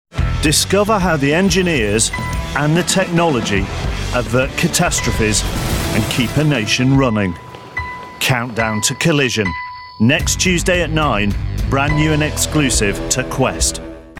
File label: promos